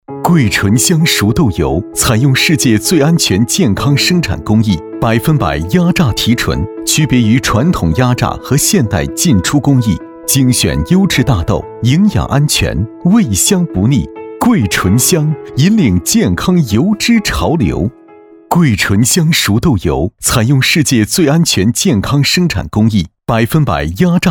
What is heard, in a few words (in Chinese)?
男 广告 熟豆油